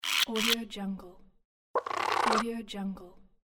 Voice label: cartoon bird voice

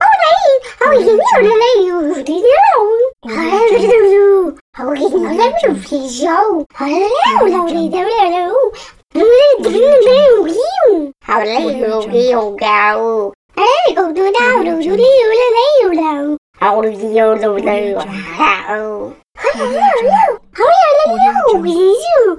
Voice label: cartoon babbles

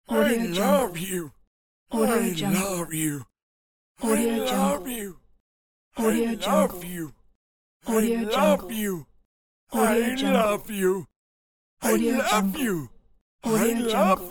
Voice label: old man saying i love you

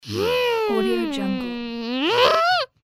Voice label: kid voice angry

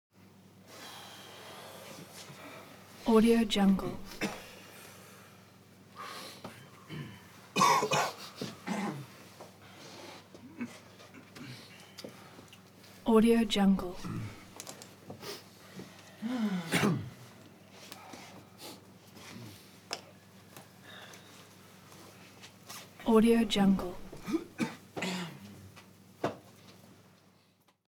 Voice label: human crowd walla waiting

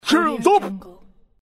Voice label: commander shouts shields up

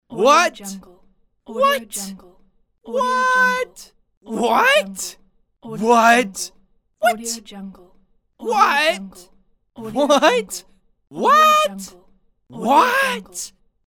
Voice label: male voice what; medusamusiclab

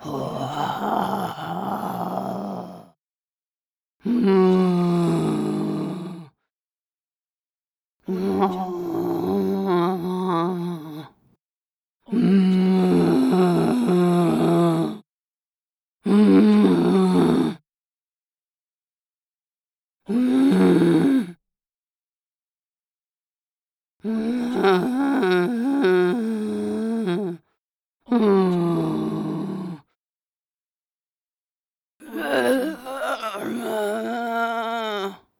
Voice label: female zombie voice pack

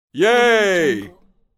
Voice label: male sarcastic "yea"

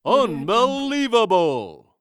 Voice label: man says unbelievable; stormwaveaudio

